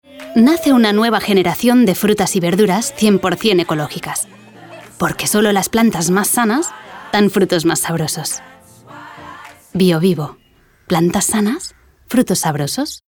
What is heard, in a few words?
female spanish fruits comercial; ideasonora